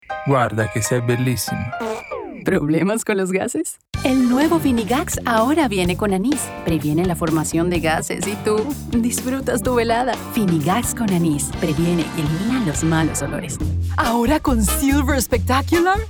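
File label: Spanish Commercial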